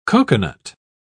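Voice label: "cocoanut", English, British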